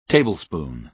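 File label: "tablespoon", American English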